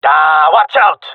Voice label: taking fire friendly, jungle male; player vo; Counter Strike Model Pack; CS:GO

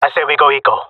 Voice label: request eco, jungle male; agents

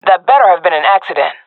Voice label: "taking fire friendly", swat; Source Model Packs; Counter Strike Model Pack; CS2; swat epic

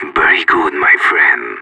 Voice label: radio bot compliment; Counter Strike; CS:GO; separatist

